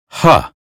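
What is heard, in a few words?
American phonetics sound hand; 英语音标发音（剑桥版）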